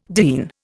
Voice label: "diene", Indian

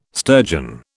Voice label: "sturgeon", British

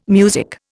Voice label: "music", Indian

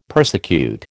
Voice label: "persecute", American

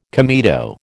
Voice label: "comedo", American

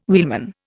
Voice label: "wheelman", Indian

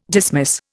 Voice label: "dismiss", Indian

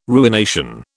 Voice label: "ruination", British

